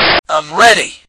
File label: grunt; svencoop; angel